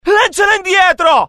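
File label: fear grenade, IT; dialogue; mohaa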